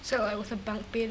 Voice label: English, New Zealand School Children